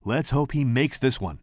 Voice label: commentator